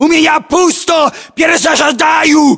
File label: inform reloading generic, battlechatter ru; english; localized english